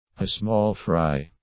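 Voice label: "a small fry", English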